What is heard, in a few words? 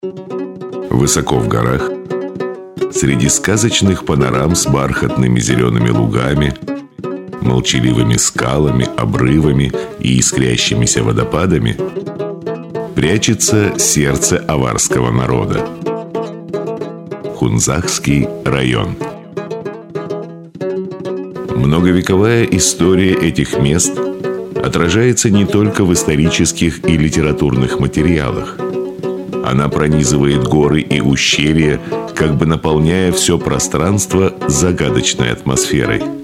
RUS